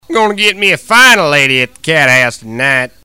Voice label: Player Wins - Fine Lady at the Cathouse, Redneck Voice; blackjack